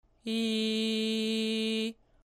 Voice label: "I", VOX